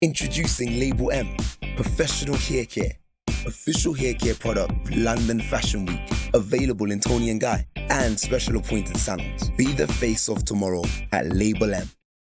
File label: male english commercial; Label M; English southern accents